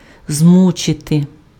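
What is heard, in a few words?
"змучити", Ukrainian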